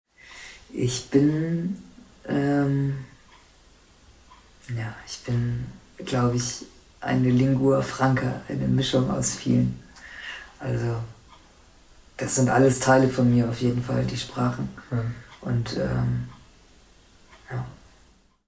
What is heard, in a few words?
punjab pakistan